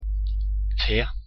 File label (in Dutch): "te" Noors; thee-in-andere-talen